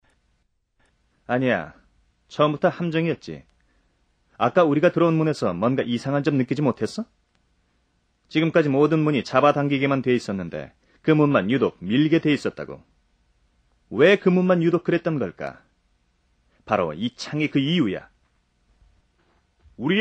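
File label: Korean male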